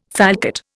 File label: "falcate", Indian